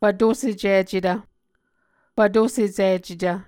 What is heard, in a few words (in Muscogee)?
"fvtosecicetv", Muscogee